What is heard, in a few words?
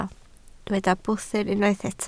"bwthyn", Welsh